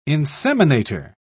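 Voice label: "inseminator", British English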